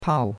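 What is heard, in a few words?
"pao4" Mandarin Chinese syllable, fourth tone; Cours de Chinois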